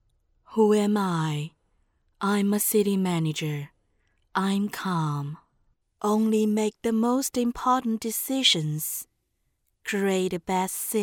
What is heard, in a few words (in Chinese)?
女 英文广告; 未来